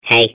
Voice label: babble; portal2